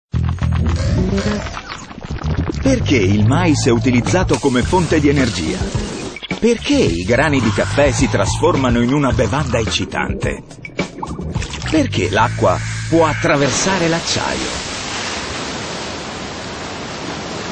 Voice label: trailer; SAPEVATE CHE; Discovery Science